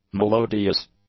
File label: "melodious", English; adjectives